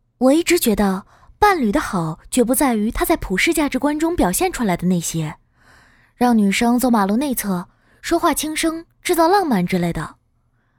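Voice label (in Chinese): 专业女声 【品质广告】清新自然; 中文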